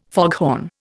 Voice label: "foghorn", Indian